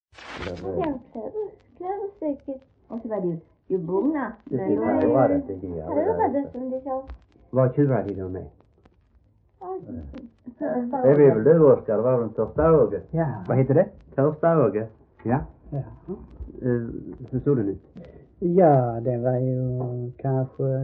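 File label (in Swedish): Äspö Skåne, dialekter; Folkminnen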